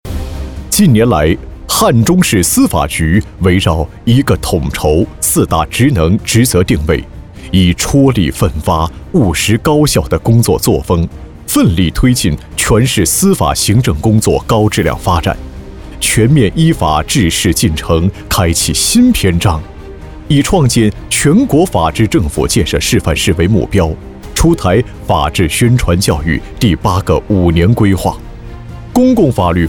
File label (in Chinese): 男 政府专题; 司法局